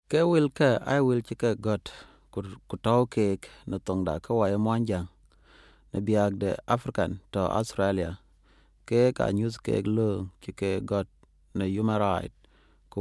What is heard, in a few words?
Dinka